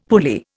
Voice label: "pulley", Indian; Dictionary